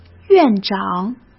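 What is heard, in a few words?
"院长", Mandarin Chinese; hsk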